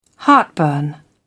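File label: "heartburn", British English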